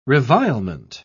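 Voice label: "revilement", British English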